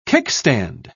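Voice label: "kickstand", British English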